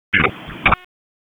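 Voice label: Police District Dispatch, p25; Raleigh; Northeast; wake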